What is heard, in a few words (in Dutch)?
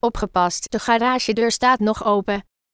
garagedeur vrouwenstem